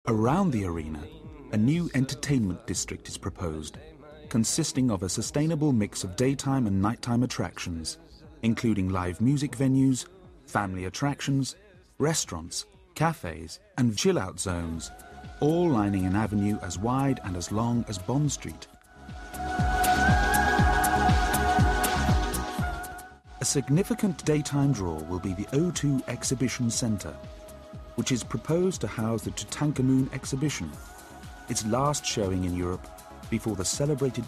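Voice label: narration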